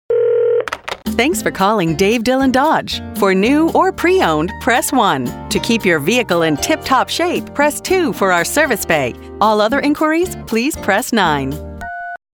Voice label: Telephony; Stand Alone Mix